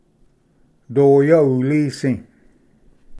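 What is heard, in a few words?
"doya usdi", Cherokee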